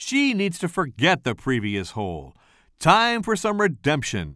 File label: commentator